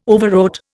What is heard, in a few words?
"Overwrought", Indian